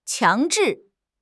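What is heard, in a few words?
"强制", Mandarin Chinese; HSK6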